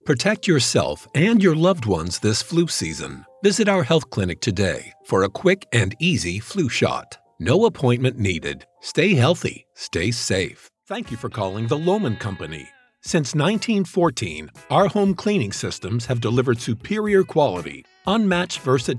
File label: Telephony